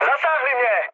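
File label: aircraft damaged, Czech, mood med; speech; War Thunder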